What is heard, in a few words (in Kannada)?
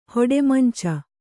"ಹೊಡೆಮಂಚ", Kannada